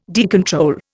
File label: "decontrol", Indian